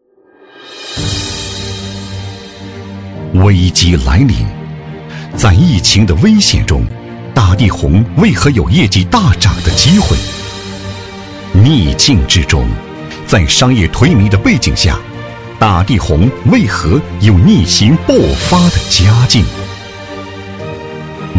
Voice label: 男,激昂大气

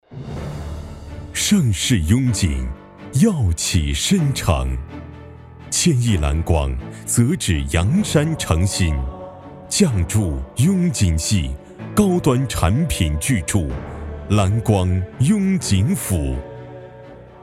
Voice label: 男 广告 房产

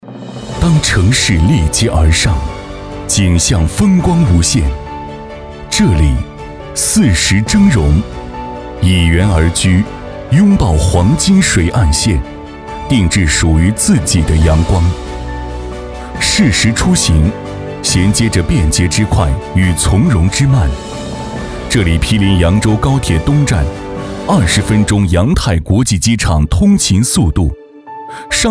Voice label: 男 宣传片; 黄金水岸线

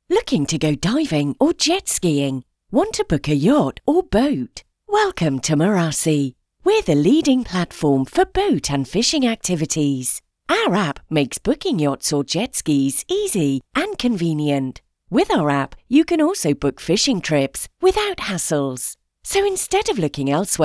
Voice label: 外籍英女 广告 水上运动器材租赁

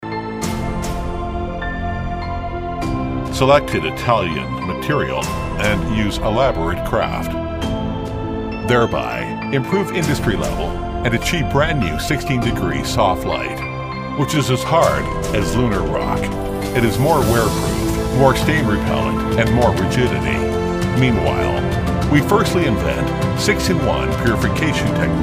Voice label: 男 瓷砖宣传片; 箭牌瓷砖

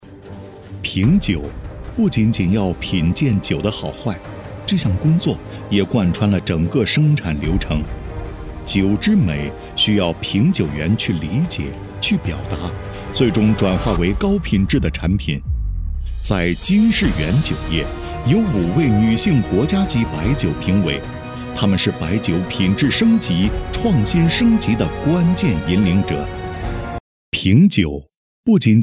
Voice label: 男 宣传片

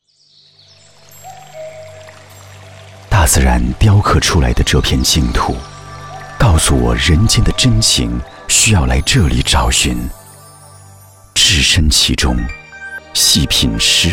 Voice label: 中年男旅游宣传片神秘舒缓深情; 飞天山

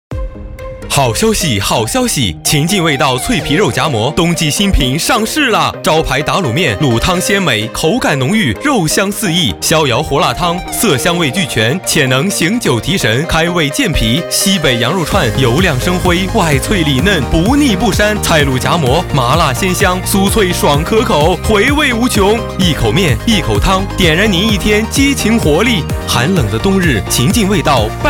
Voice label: 男 广告 年轻活力 促销

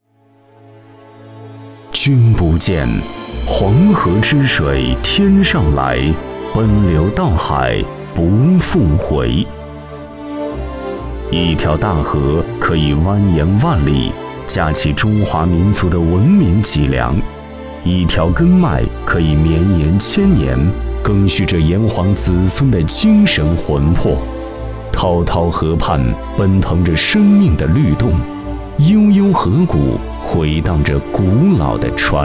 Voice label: 男 记录 历史人文

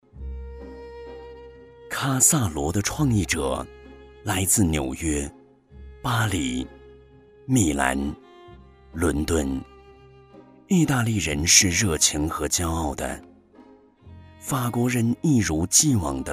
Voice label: 男 纪录片 音乐版

